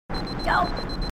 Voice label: grunt; h2dialogue; grunt crazy

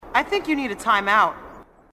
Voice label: marine female, sassy

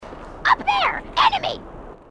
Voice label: grunt crazy; h2dialogue

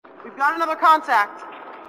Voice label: we've got another contact, marine sassy

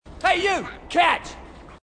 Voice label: marine, aussie; h2dialogue

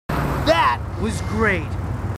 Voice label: marines, marine timid; h2dialogue

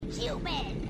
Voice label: human, grunts

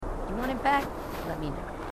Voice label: marines female, marine tough

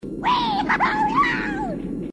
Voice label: weeehoohooyeow, grunts

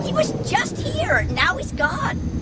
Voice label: grunt; h3dialogue; staten

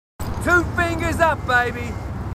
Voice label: marines, aussie; h2dialogue

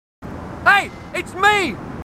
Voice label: marines, aussie; h2dialogue

